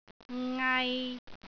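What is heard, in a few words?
"ngai3", Cantonese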